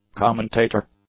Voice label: "commentator", English; nouns